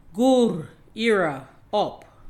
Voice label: GURR ERA OP Pronunciation